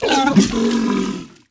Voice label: shocked; Star Wars Obi-Wan; XBOX